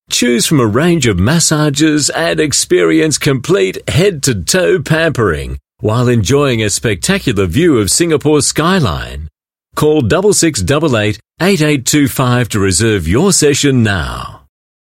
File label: AU Mid Atlantic English, English Australian Male; MBS Banyan Tree